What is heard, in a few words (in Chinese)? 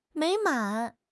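"美满", Chinese; HSK; HSK6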